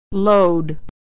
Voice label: "load", pronunciation

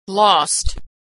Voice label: verb "lost", pronunciation

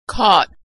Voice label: verb "caught", pronunciation

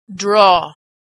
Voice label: verb "draw", pronunciation

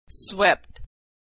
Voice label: verb "swept", pronunciation

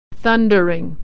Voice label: "thundering", pronunciation

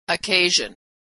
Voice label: "occasion", pronunciation; Consonant Sound Pair

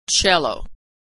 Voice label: cello, Authentic American Pronunciation; ch dzh pattern

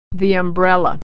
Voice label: "umbrella", pronunciation

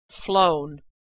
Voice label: verb "flown", pronunciation

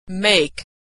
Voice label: verb "make", pronunciation

